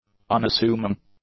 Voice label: "unassuming", English; adjectives

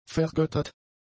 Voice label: "vergöttert", German; adjectives

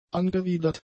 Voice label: "angewidert", German; adjectives

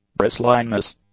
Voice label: "bristliness", English; nouns

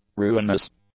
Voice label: "ruinous", English; adjectives

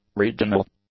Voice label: "regional", English; adjectives

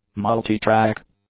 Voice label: "multi-track", English; adjectives

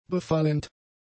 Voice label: "befallend", German; adjectives

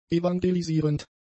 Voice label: "evangelisierend", German; adjectives

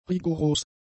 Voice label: "rigoros", German; adjectives

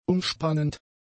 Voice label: "umspannend", German; adjectives

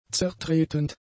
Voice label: "zertretend", German; adjectives

